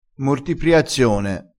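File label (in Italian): "mortipriazione", dialettale crespinese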